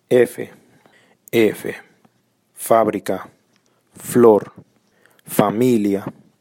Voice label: Letra F, el alfabeto español; Trayectos